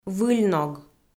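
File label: "выль ног", Komi, female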